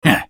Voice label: effort, en US; Twisted Fate